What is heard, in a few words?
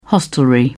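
"hostelry", British English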